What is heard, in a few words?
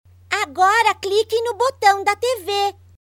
locutora brasil brazilian voiceover child